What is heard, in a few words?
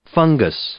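"fungus", English; noun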